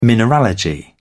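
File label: "mineralogy", British English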